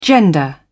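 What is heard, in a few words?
"gender", British English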